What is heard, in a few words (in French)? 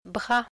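"bras", French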